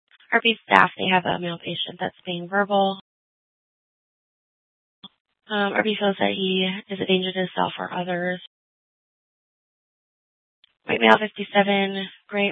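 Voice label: police department dispatch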